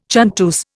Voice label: "chanteuse", Indian